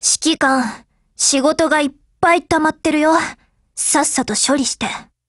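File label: HELLO, Japanese; GF Alarm; xm3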